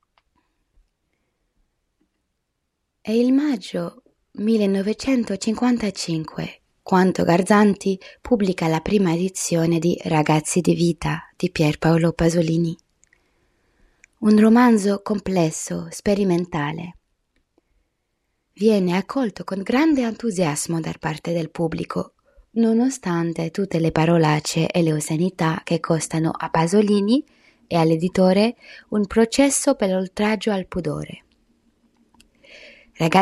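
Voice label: female voice, Italian accent, Georgian; GoLocalise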